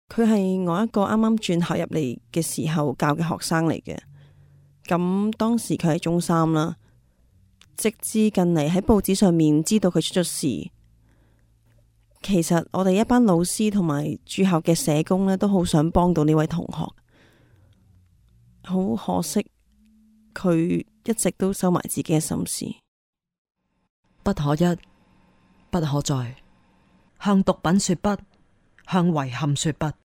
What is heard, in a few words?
teacher cantonese